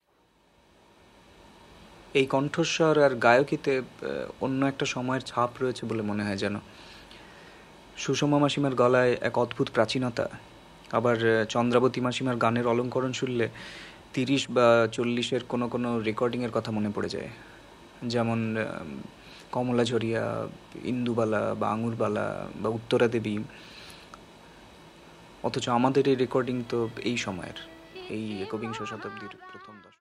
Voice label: Voice-over